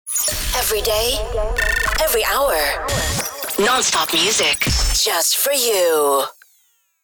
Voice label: Female radio voice dj sweeper every day every hour